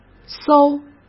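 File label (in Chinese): "搜", Mandarin Chinese; hsk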